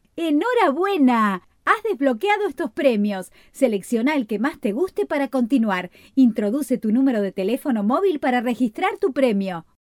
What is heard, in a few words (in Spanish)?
locutora argentina argentinian voiceover